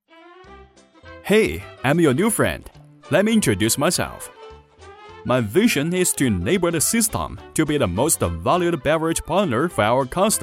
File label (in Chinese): 男 英语 MG动画; Hey-Im-your-new-friend-D3